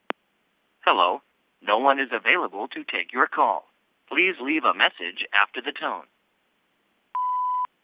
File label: answering machine default ogm